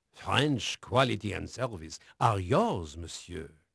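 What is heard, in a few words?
French male, voice; Pirates Of The Caribbean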